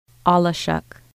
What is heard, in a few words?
"turtle", Lushootseed